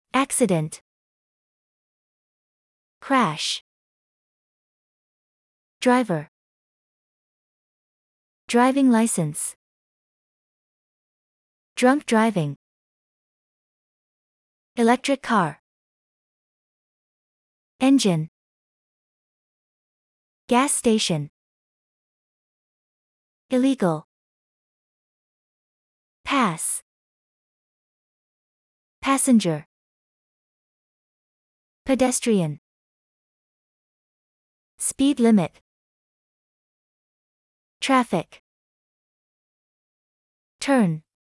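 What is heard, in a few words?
American; driving word bank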